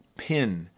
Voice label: "pin", Minimal Pairs